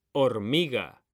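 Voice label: "hormiga", Spanish, male; mekanta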